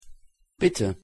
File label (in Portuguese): pronuncia alemao por favor